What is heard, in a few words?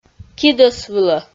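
"кидӧс вылӧ", Komi, female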